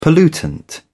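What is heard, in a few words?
"pollutant", British English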